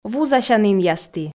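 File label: "вузасянінъясті", Komi, female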